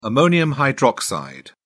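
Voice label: "ammonium hydroxide", English, British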